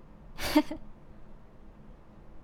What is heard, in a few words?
"Heh", human female villager; customnpcs